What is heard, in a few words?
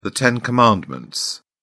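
"ten commandments", British English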